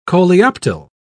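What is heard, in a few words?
"coleoptile", British English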